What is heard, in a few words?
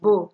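"bo", Nigerian Pidgin, female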